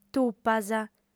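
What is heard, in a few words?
"tupas", female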